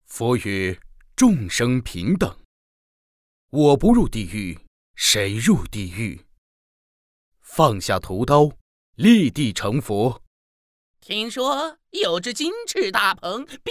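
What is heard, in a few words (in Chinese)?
国语 男声 游戏角色 激情激昂 大气浑厚磁性; 命运王座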